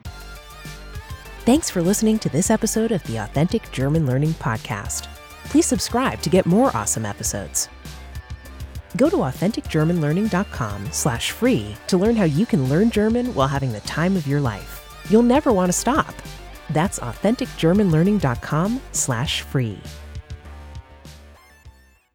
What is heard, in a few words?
German Learning podcast intro; uthentic German Learning podcast intro